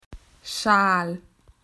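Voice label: "scarf", Australian English; PictureDictionary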